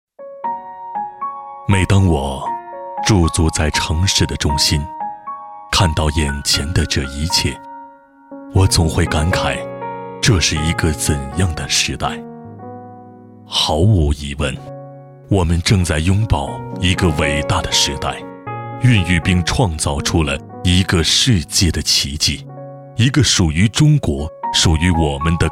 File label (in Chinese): 男60号 独白; 国语男声配音; 交广主播; 菁英时代